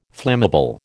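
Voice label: "flammable", American English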